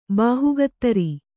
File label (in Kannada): "ಬೇಹಕ್ಕುದಾರ", kannada